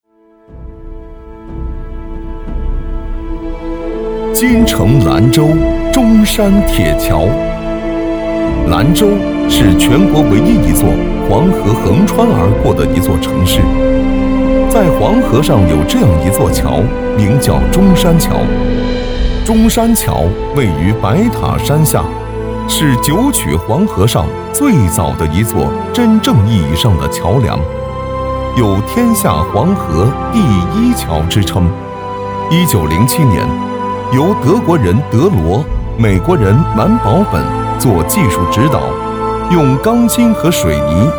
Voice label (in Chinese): 大气深沉黄河中山桥